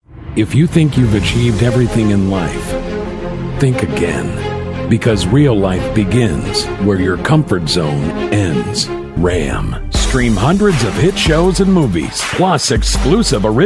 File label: Commercial